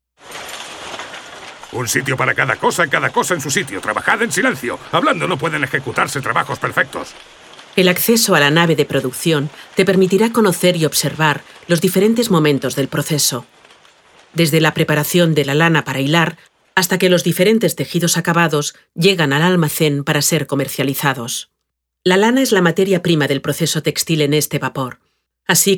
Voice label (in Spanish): audioguia; fabrica textil esp